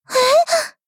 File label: shock